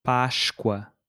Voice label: "páscoa", Portuguese

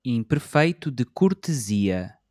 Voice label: "imperfeito de cortesia", Portuguese

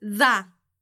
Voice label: "dá", portuguese

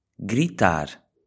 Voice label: "gritar", portuguese

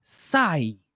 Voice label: "sai!", portuguese

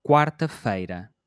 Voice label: "quarta-feira", Portuguese

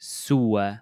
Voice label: "sua", portuguese

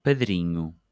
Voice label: "padrinho", Portuguese

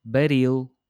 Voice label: "baril", Portuguese

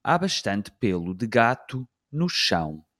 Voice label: "há bastante pêlo de gato no chão", portuguese

